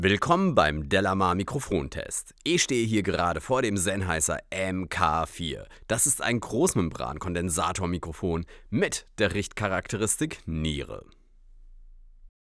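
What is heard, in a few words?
speech male sennheiser mk4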